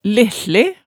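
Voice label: "lehsle", Hemsedal dialect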